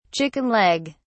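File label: "chicken leg", British English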